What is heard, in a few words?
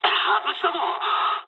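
bomb failure mood med, speech japanese; War Thunder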